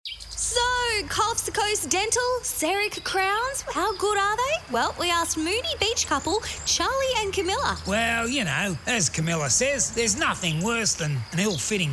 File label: PRODUCED CONVERSATIONAL